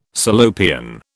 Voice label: "Salopian", British